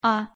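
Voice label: "а", Russian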